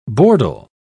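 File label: "bordel", British English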